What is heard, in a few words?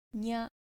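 "nya", Japanese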